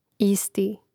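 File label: "isti", Croatian; mreznik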